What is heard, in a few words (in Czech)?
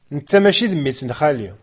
berberština